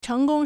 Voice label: "cheng2gong1", Chinese